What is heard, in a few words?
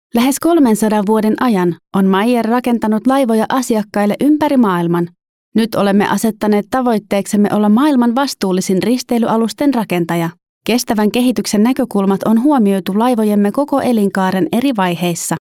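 Finnish, female, corporate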